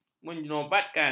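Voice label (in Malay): "menobatkan", Malay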